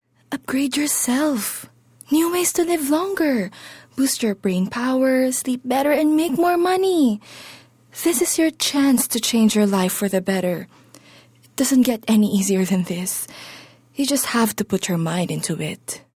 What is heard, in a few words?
English (Drama)